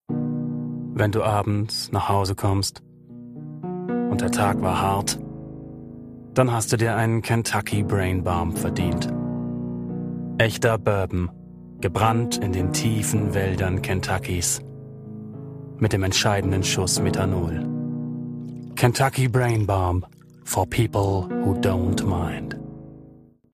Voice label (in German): Werbung; Whiskey